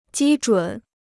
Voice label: "基准", Chinese

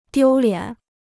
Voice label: "丢脸", Chinese

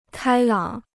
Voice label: "开朗", Chinese

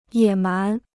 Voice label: "野蛮", Chinese

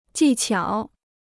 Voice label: "技巧", Chinese

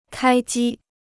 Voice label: "开机", Chinese

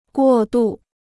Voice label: "过渡", Chinese

